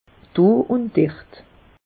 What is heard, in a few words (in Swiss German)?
"doundert", alsace; meteo